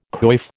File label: "coif", American